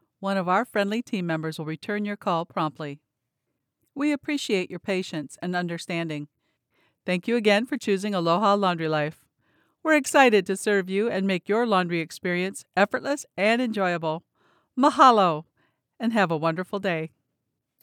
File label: IVR Message